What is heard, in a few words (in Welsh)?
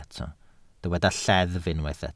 "lleddf", Welsh